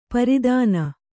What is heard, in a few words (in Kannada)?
"ಪ್ರತನ", Kannada